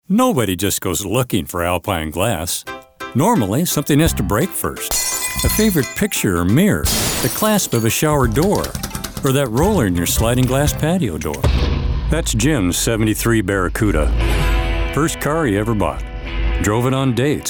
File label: Commercial